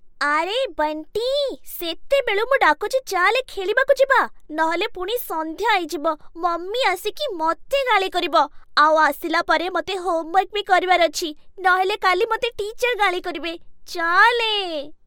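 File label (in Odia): Odia, Character; voice demos; GoLocalise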